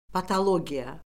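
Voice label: "patologiya", Azerbaijani; Orfoqrafiya Lüğəti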